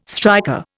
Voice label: "striker", Indian